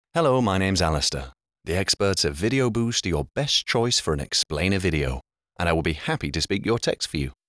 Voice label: British English; internationale voiceover